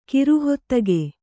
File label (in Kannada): "ಕಿರುಹೊತ್ತಗೆ", Kannada